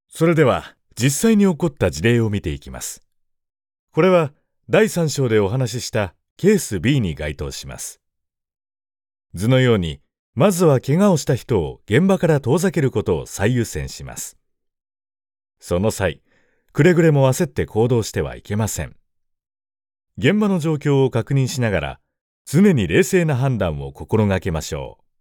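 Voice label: Japanese, male, elearning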